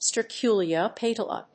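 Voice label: "sterculia apetala", English; パナマ原産の大きな落葉樹; Weblio英和辞書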